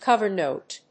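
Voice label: "cover note", English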